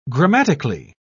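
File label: "grammatically", English, British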